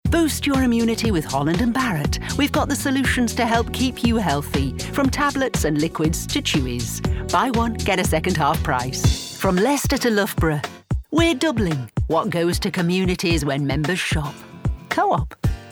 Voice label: COMMERCIAL MONTAGE